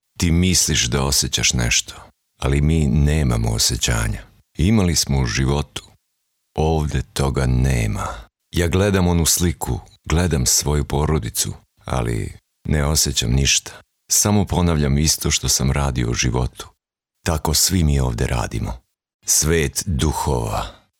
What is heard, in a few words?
male serbian voice over talent sales